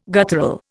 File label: "guttural", Indian